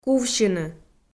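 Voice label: "кувсьыны", Komi, female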